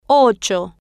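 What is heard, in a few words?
"ocho", Spanish, female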